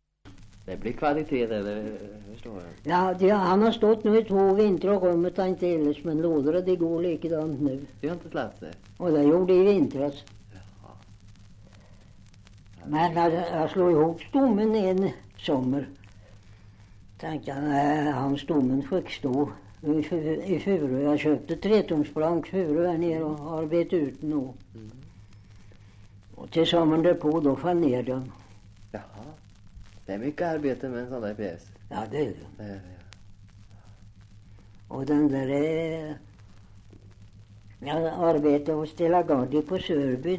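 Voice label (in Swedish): Oppeby Åtvid Östergötland, dialekter, Svenska sagor; Folkminnen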